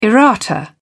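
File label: "errata", British English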